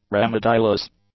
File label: "remediless", English; adjectives